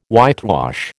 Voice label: "whitewash", American

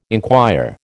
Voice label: "enquire", American

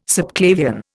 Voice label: "subclavian", Indian

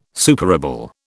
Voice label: "superable", British

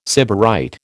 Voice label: "sybarite", American

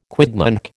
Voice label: "quidnunc", American

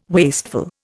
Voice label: "wasteful", Indian

